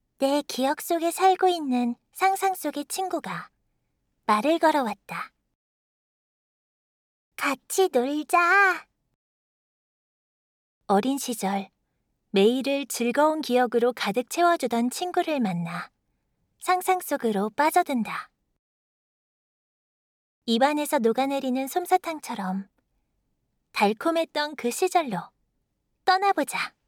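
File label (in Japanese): やさしい、儚げな, ナレーション, 韓国語; 韓国ソウル出身。日本の専門学校、声優養成所を卒業。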